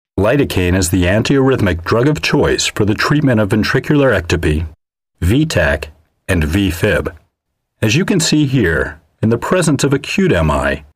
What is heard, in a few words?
medical narration